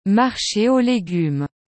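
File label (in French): "Marche aux legumes", French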